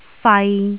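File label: "fai3", Cantonese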